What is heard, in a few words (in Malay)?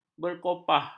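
"berkopah", Malay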